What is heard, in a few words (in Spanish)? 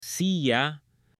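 "silla", Spanish, male; MUEBLES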